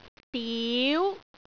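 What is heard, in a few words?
"diu2", Cantonese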